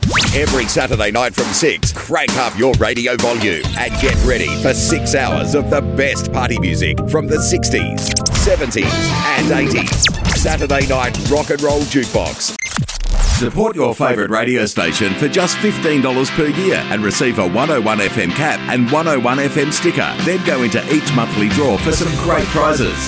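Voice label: Australian English, Radio; GoLocalise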